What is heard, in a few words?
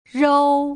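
"rou", Putonghua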